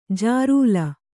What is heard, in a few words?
"ಜಿರಲೆ", Kannada